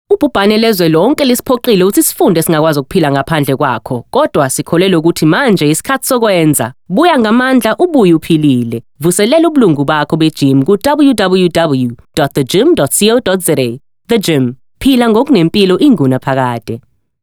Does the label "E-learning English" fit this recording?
No